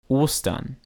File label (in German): "Ostern", German